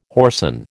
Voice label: "whoreson", American